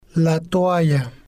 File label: "la Toalla", spanish